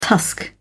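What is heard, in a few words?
"tusk", British English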